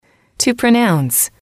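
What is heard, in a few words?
"to pronounce", English, female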